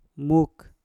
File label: "muk", Mpur; ekor